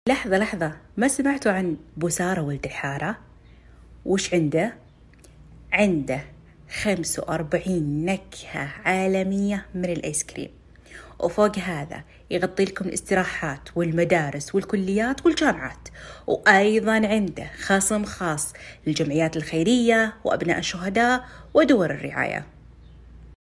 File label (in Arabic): اعلان صوت نسائي